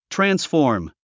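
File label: "transform", English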